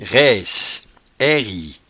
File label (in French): "raice", patois jura